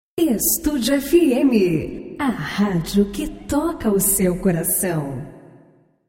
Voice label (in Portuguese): locutora a radio que toca seu coracao